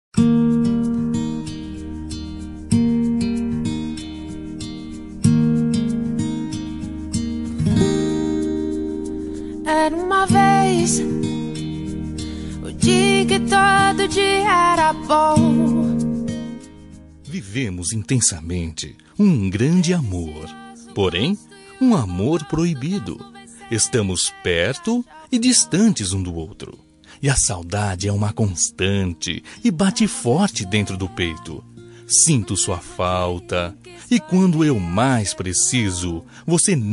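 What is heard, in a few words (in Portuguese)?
romântica amante masculina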